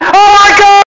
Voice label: gamer oh my god loud